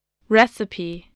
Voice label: "recipe", voice, english